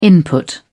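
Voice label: "input", British English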